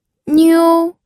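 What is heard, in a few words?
"niu", first tone, pinyin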